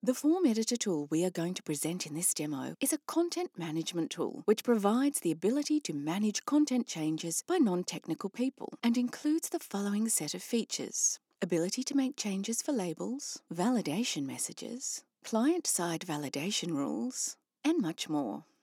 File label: english australian others